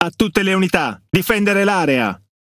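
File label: Italian speech Quick Commands Ally Point; World Of Warships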